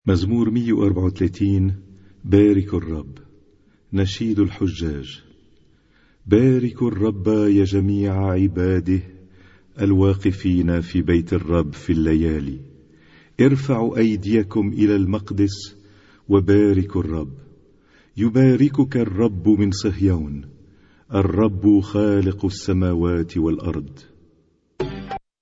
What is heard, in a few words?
Arabic Psalm Zaboor